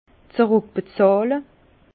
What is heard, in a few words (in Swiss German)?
"zeruckbezahle", Bas Rhin; vin; rembourser